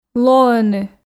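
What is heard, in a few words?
"лоӧны", Komi, female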